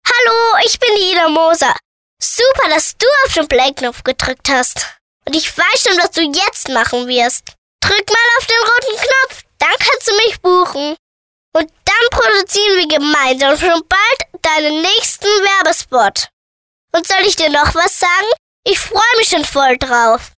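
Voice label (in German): Kinder Neutral, Kinderstimmen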